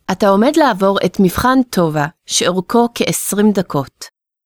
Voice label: Test, hebrew